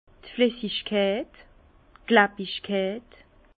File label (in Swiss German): "flessigkeit", Bas Rhin; vin; viscosité